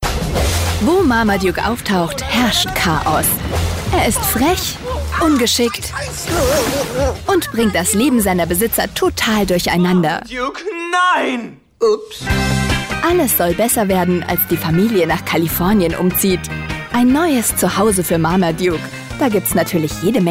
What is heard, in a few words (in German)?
voice over freundlich; kika